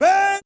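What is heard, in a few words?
"huh", vocal sample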